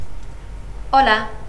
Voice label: espagnol "Hola"

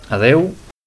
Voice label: catalan "Adeo"

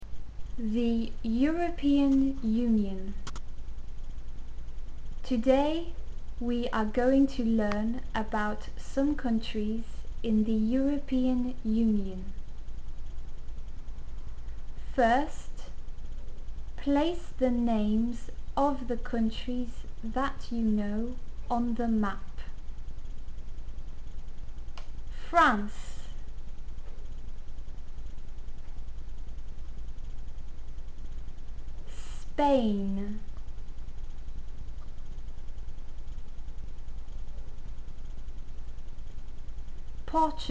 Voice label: anglais

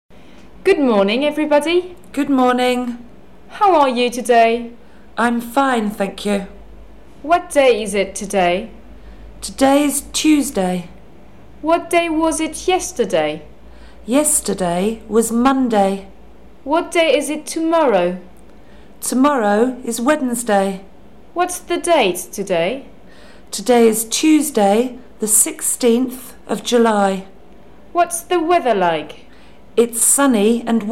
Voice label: Dialogue rituels en classe

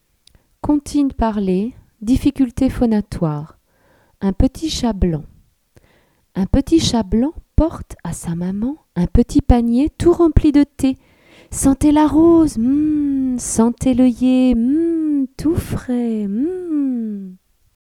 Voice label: Un Petit Chat Blanc, Comptines Parlees; Difficultes Phonatoires

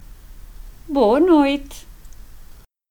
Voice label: portugais Boa note

